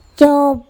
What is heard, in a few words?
sour fruit-cOp, Andamanese